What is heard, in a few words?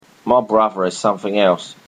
"my brother", Cockney; accents of english